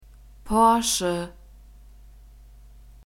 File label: "porsche", German; nouns gender masculine